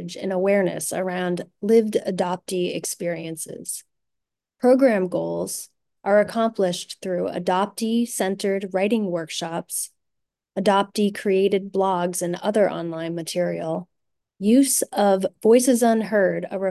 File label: Adoptees in 3 voiceover